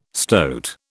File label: "stoat", British